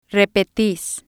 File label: "repetis", spanish